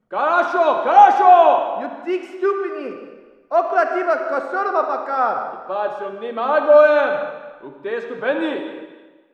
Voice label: walla rus mountain conv